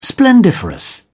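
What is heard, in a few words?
"splendiferous", British English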